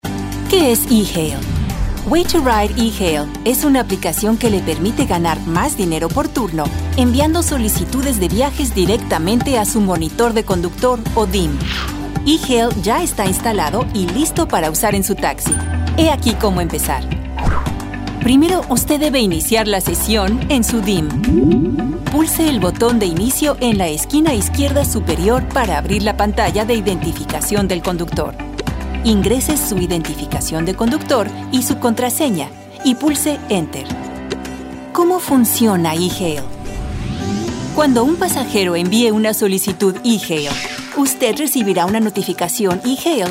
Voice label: Spanish Employee Training